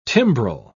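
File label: "timbrel", British English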